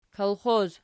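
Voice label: "kolxoz" nominative, Archi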